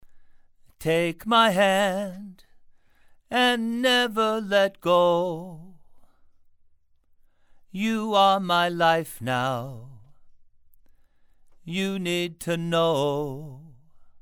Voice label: Male Vocals